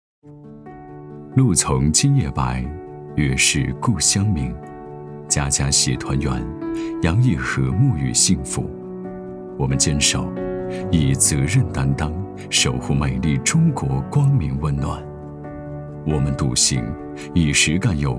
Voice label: 男; 中秋节样音; 中秋迎国庆

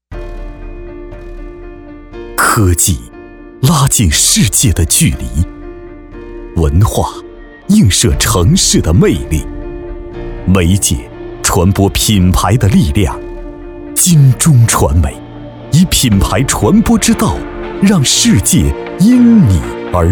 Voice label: 男国 企业宣传片; 金钟传媒

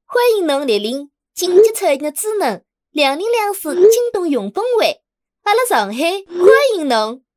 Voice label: 上海方言 女 上海欢迎您; 方言配音

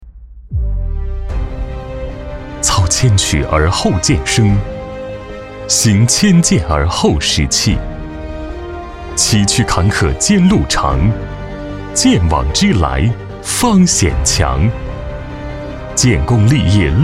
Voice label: 男, 银行宣传; 建设银行

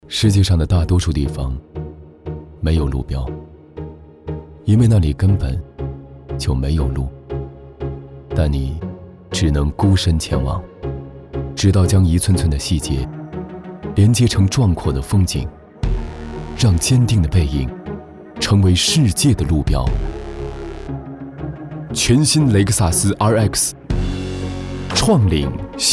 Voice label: 男 国 大音 广告 汽车

